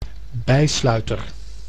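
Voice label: "bijsluiter", Dutch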